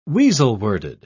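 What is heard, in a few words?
"weasel-worded", British English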